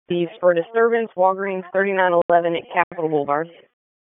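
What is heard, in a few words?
Raleigh Police Northeast District Dispatch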